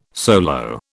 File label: "solo", British